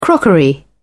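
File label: "crockery", British English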